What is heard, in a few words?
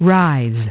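"rise", English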